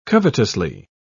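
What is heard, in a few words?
"covetously", British English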